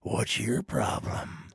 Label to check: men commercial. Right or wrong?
Wrong